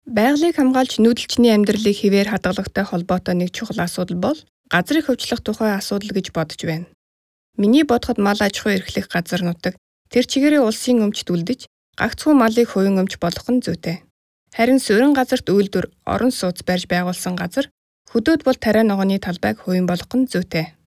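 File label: Mongolian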